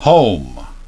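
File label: "home", English pronunciation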